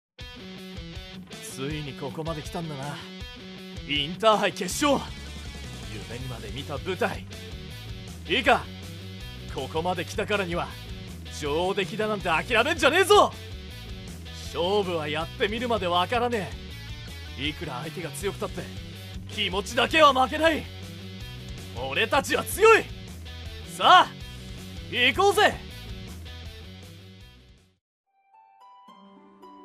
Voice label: 日籍男

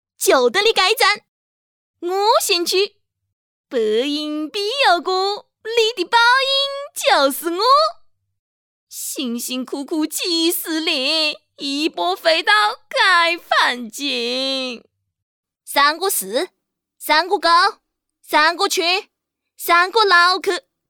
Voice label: 长沙话棋牌年轻女声

